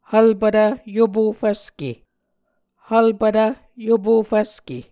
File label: "hvlpvtv yopo fvske", Muscogee